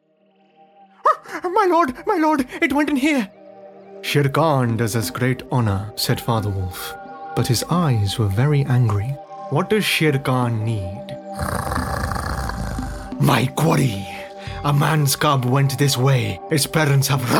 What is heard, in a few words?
Audiobook; Jungle Book